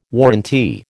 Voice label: "warrantee", American